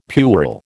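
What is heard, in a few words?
"puerile", American English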